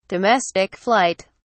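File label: "domestic flight", British English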